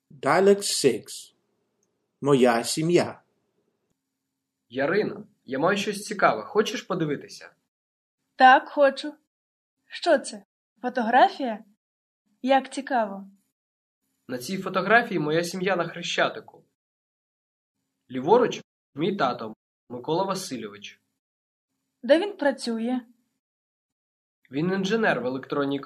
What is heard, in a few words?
Dialogue, Ukrainian